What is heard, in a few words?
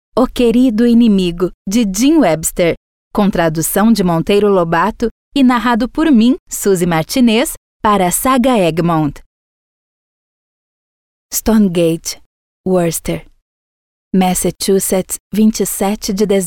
AUDIOBOOK